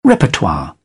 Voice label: "repertoire", British; English